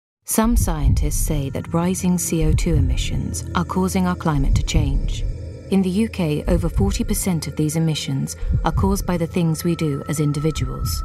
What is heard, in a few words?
corporate